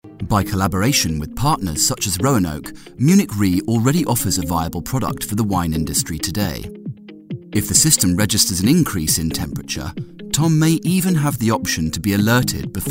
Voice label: Corporate Technical